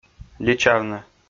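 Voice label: "личавны", Komi, male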